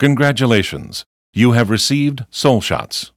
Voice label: tutorial voice; lineage2

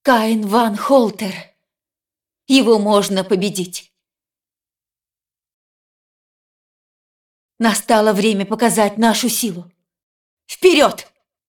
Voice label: cinematic voice, ru; lineage2

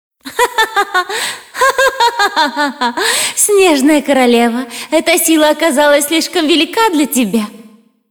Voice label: cinematic voice, ru; lineage2

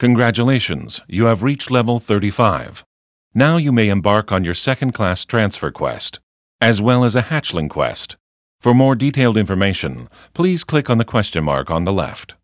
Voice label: tutorial voice; lineage2

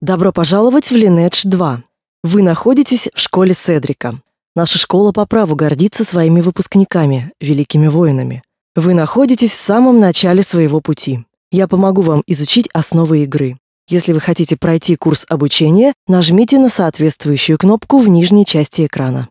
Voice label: tutorial voice ru; lineage2

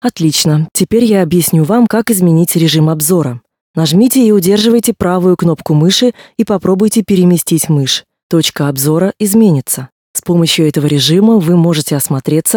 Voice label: tutorial voice ru; lineage2

